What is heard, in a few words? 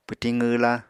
"pwtiñ qwwla", Apatani